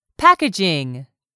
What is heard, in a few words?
"packaging", English; 3000 words